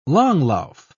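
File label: "langlauf", British English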